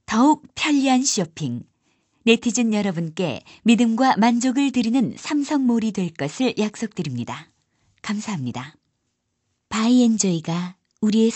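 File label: Korean, female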